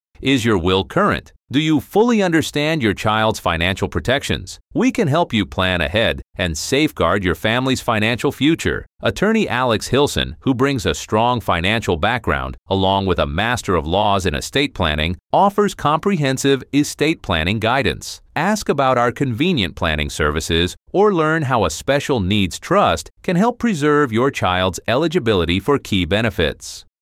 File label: Male